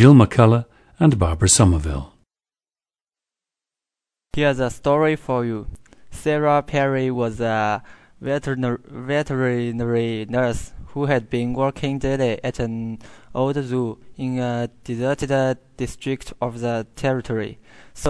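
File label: jiangsu, dialects of English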